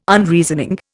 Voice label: "Unreasoning", Indian

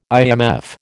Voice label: "IMF", American

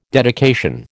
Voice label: "dedication", American

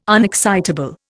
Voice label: "unexcitable", Indian

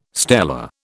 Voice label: "stela", British

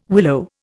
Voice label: "willow", Indian

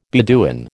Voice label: "Beduin", American

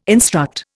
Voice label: "instruct", Indian

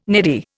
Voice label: "neddy", Indian

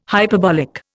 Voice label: "hyperbolic", Indian

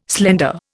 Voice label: "slender", Indian

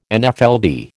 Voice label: "Nfld", American

